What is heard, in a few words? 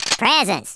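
"collect", Redneck, male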